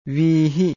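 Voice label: "viihi", Pali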